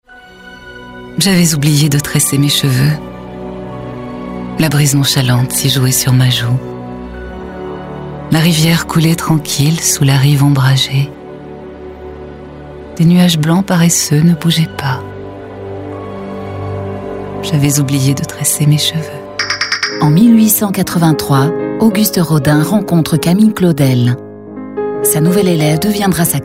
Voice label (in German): Imagefilm